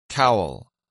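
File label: "cowl", English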